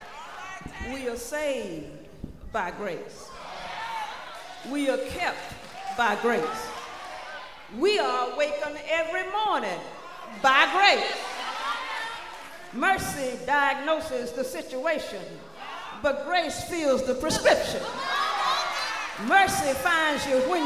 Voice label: Narration